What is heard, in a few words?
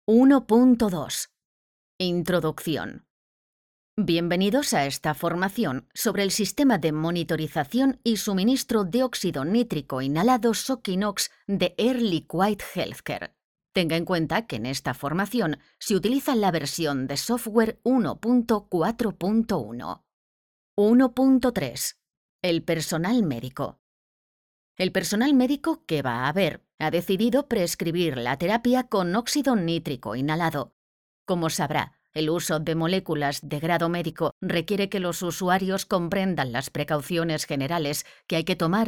elearning; banco de voces